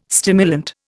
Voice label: "stimulant", Indian